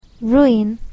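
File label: "ruin(s)", English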